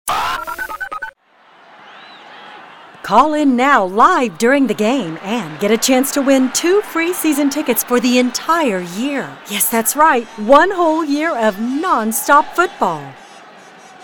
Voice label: football TV promo